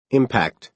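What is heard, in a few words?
"impact", American English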